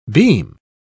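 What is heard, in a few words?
"beam", English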